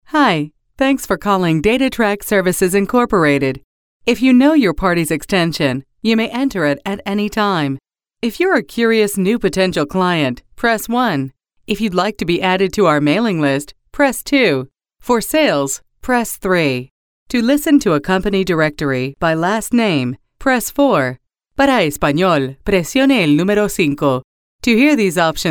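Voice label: bilingual Spanish English IVR, bilingual voice artist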